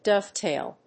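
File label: "dovetail", English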